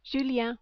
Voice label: "Julien", French